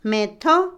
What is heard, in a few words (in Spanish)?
"paz", Otomí, mujer; Vocabulary; INALI